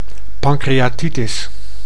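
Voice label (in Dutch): "pancreatitis", Dutch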